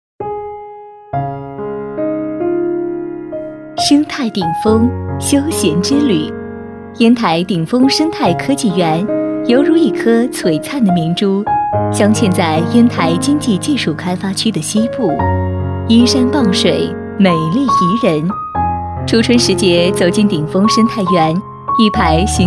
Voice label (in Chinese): 专题 企业 科技园 亲切, 女国